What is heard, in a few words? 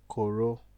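"coro", Nigerian Pidgin, male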